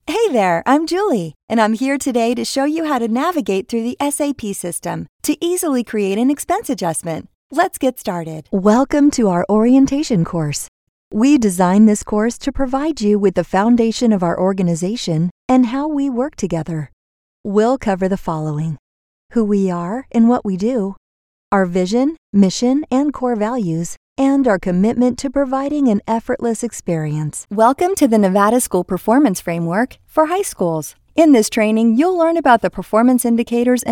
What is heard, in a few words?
Narration